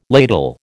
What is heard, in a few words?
"ladle", American